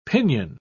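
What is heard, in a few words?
"pinon", British English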